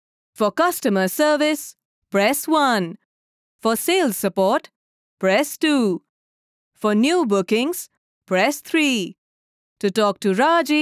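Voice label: IVR English, Professional English Female Voice Over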